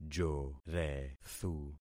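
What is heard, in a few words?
"jorethu", concatenated syllables; Affixing experiment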